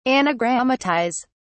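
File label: "anagrammatise", British English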